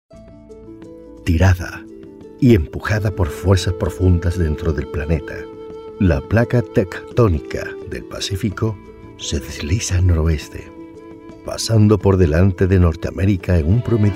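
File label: DOCUMENTARIE SPANISH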